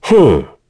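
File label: Vox Deny, English; Esker; kings raid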